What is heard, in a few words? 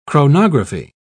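"chronography", British English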